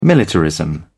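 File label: "militarism", British English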